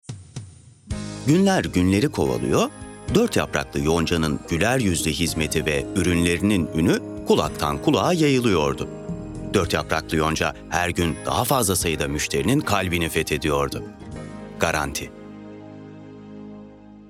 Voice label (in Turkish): enerjik esprili